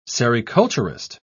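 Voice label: "sericulturist", British English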